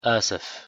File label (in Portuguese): pronuncia de desculpe arabic